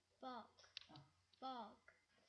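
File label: "bog", spoken word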